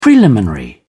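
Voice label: "preliminary", English, British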